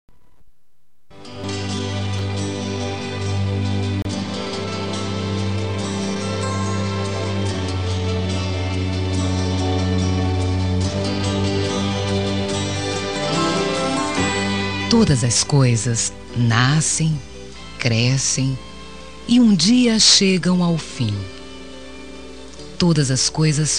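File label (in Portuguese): Religiosa Mensagem pra Afastado da Igreja, Feminino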